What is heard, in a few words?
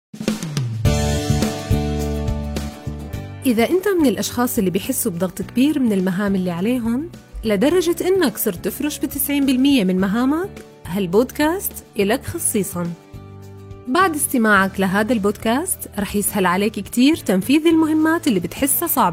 Syrian, Syrian voiceover